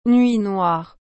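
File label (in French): "nuit noire", French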